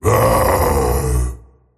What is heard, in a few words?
anger; tiny